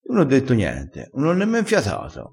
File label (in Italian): "fiata", crespinese